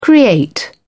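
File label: "create", English, British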